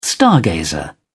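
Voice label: "stargazer", British English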